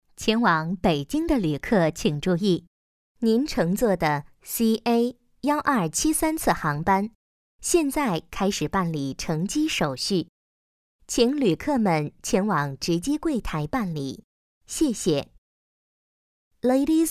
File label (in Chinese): 女 机场 中英广播; 巴盟机场